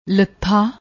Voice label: "lattha", Punjabi; ਲੱਥਾ